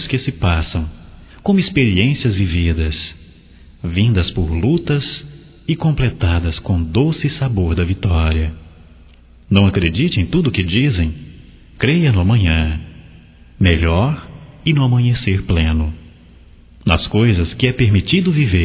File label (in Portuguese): Aniv para padrinho, masc; Telemensagens 2017; Aniversarios